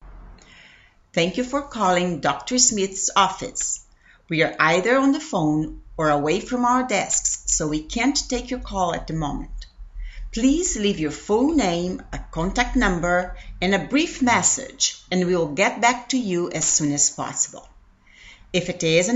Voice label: Voice mail